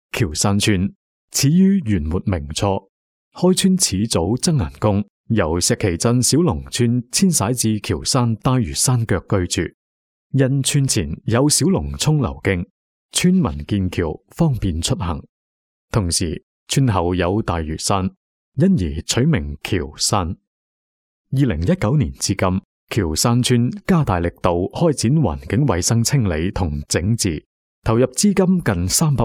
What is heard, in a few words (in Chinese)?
粤男; 男【专题】桥山村